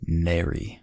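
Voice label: "nary", English, American accent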